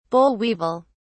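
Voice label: "boll weevil", British English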